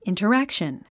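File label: "interaction", English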